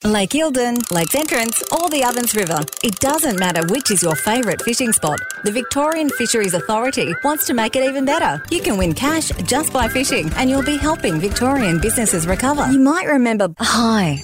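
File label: Australian English, Commercial; GoLocalise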